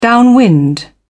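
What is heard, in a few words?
"downwind", British English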